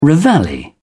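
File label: "reveille", British English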